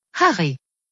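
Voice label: "Harry", German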